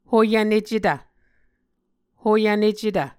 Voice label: "hoyvnecetv", Muscogee

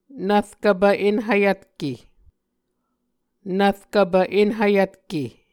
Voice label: "nvrkvpv enhvyvtke", Muscogee

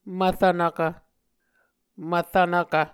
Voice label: "mvrvnvkv", Muscogee

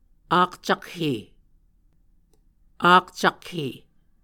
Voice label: "akcakhe", Muscogee

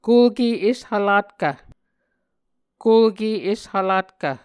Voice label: "kulke eshvlatkv", Muscogee

